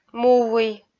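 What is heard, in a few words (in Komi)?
"мувый", Komi, female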